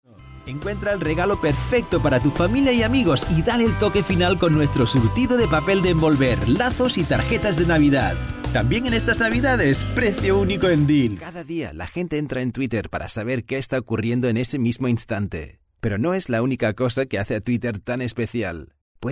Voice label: male spanish castilian commercial; english indian and spanish castilian

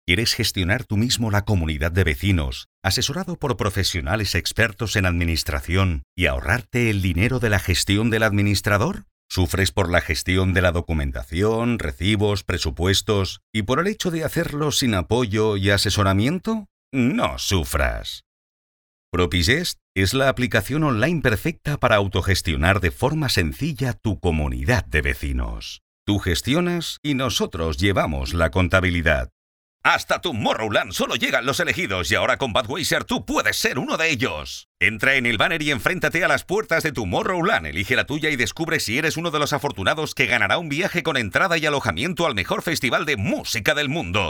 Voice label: male spanish castilian commercial

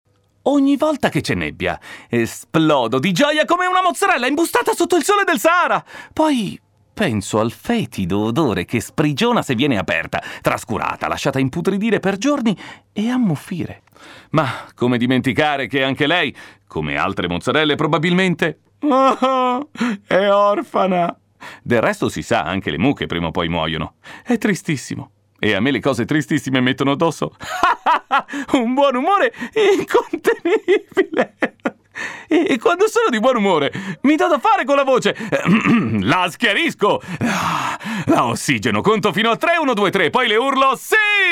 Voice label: male, Italian, cartoon animation

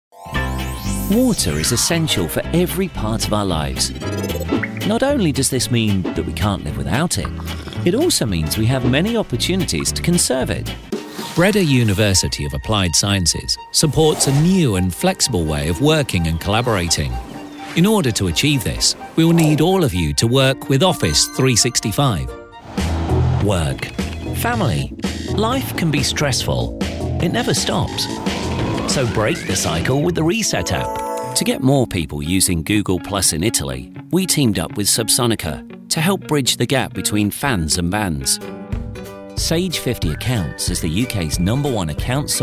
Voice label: male english explainer compilation; Devine Voices

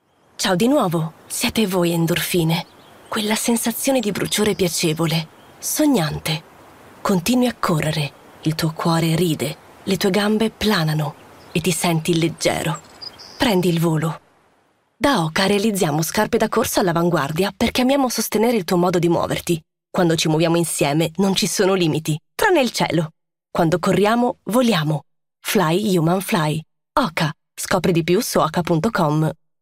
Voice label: female Italian commercial; hoka

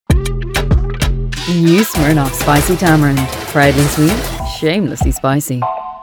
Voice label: female English Irish commercial; irish southern; smirnoff

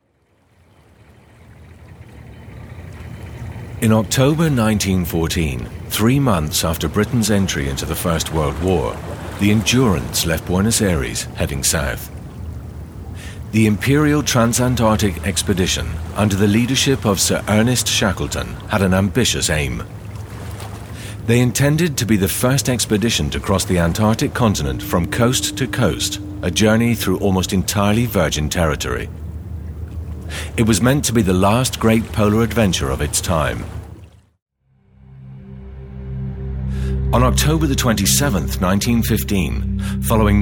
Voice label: male english exhibition documentary; Devine Voices

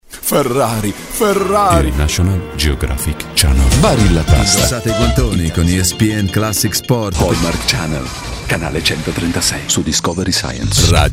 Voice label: male, English with Italian accent and Italian; voices

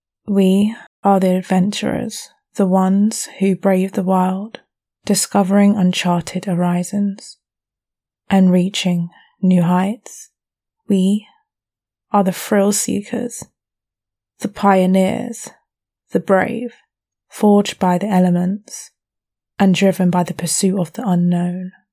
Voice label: female English narration

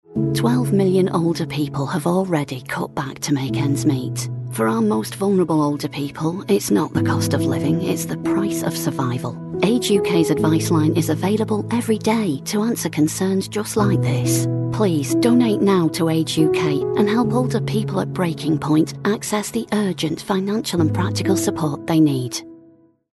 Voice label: female English northern accent, uk appeal; age uk; english northern accents

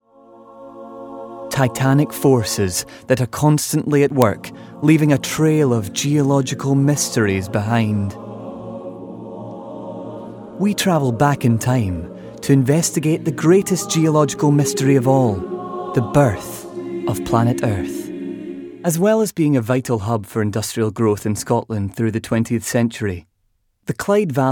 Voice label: male English Scottish documentary compilation

